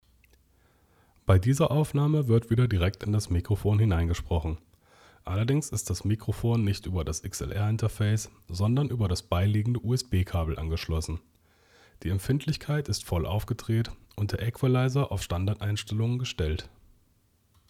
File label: Maono PD400X direkt USB